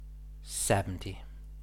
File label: "seventy", English